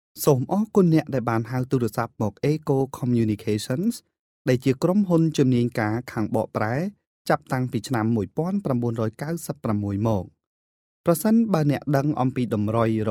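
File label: Khmer, Corporate; GoLocalise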